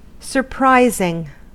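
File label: "surprising", US English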